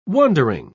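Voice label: "wondering", British English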